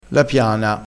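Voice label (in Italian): "piana", dialetto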